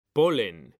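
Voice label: "polen", Spanish, male; mekanta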